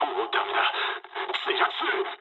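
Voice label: aircraft destroyed mood med, speech japanese; War Thunder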